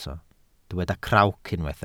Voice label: "crawc", Welsh